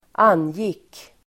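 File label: "angick", Swedish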